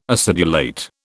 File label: "acidulate", British